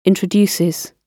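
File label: "introduces", British English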